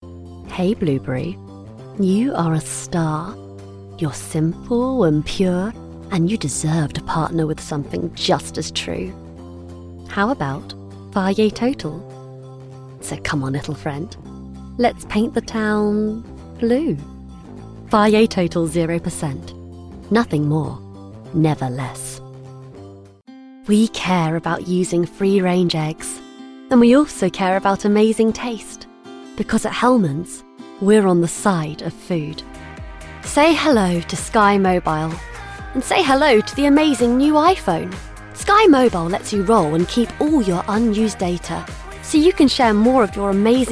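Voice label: British English, Commercial; GoLocalise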